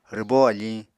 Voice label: "rwbó afiñ", Apatani

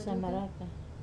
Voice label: "samaraka", watermelon, Wamesa

